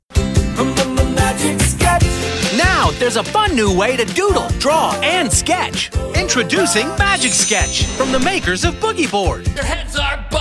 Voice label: Kids Commercials

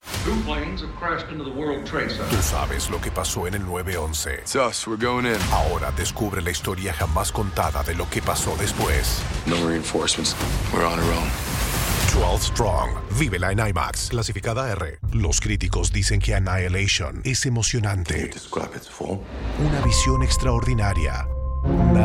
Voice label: Trailer

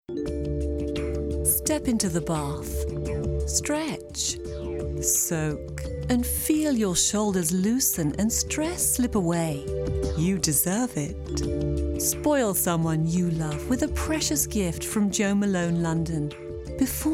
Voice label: Commercial British Accent

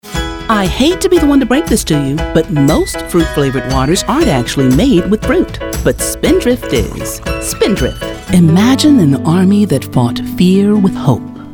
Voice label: Commercial

